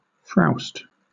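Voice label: "frowst", British English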